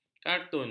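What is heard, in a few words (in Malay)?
"karton", Malay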